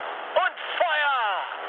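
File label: German attack position mood med, speech; War Thunder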